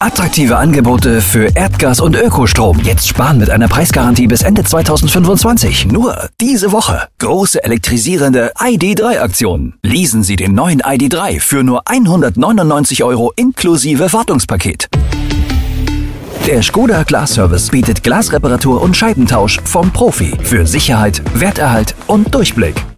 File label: sprecherdemo commercials; Sprecher in Leipzig; Muttersprache Deutsch